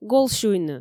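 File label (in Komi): "гол сюйны", Komi, female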